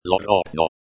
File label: "lo ropno", lojban